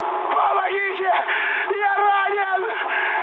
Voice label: damaged pilot, mood high, speech ru; War Thunder